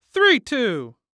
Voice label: Human Voice Male Young Man Baseball Umpire Three Two; Sports